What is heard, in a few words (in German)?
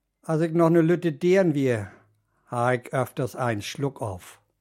mecklenburgisch rostock; sprachliche vielfalt